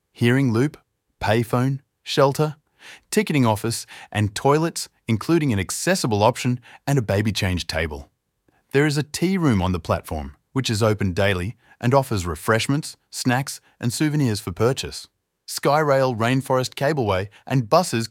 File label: Station map audio description